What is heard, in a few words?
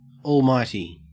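"almighty", Australian English; pronunciations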